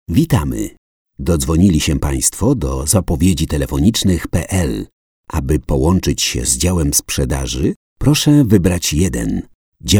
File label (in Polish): zapowiedzi